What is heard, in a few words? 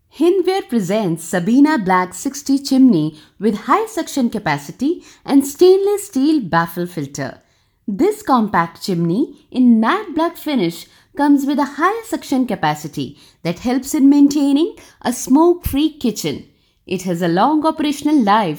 English Explainer